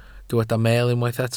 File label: "meel", Welsh